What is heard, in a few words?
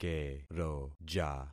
"keroja", concat syllables; Affixing experiment